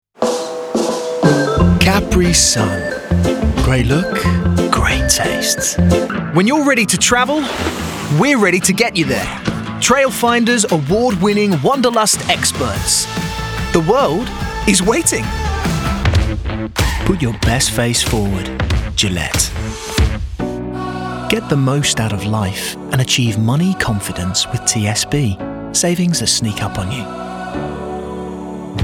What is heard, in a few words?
Commercial